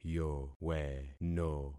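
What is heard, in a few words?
"yoweno", concatenated syllables; Affixing experiment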